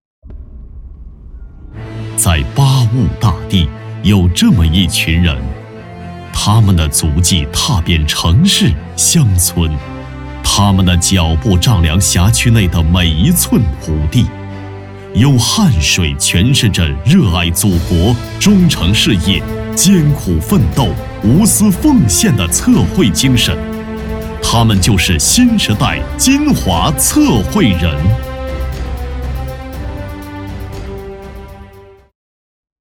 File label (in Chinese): 男 专题片深情感人; 测天地-绘未来